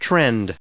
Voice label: "trend" noun